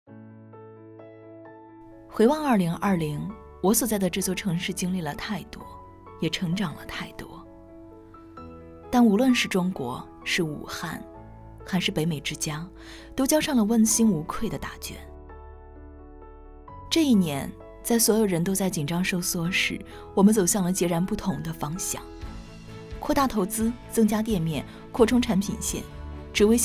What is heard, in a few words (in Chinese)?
独白广告; 北美之家